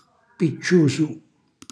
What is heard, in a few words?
"picciusu", Calabrese; cucina calabrese; Glossario